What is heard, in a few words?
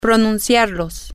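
to Pronounce It masculine plural, Spanish, female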